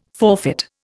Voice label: "forfeit", Indian

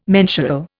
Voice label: "mensural", Indian

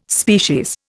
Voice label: "species", Indian

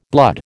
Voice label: "blood", American

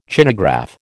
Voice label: "Chinagraph", American

